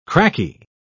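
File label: "cracky", British English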